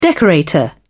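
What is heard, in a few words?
"decorator", British English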